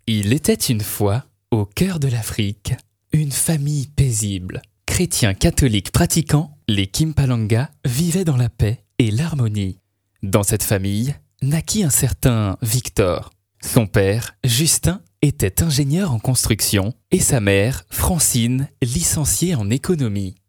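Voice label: French, male, narration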